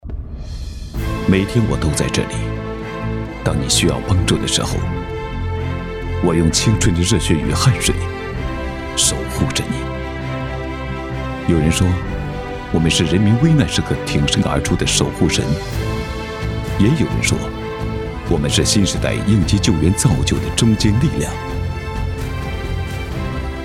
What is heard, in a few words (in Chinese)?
男 旁白 大气 中国消防救援